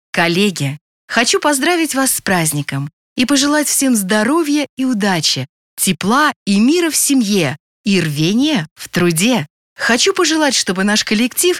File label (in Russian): female pozdravlenie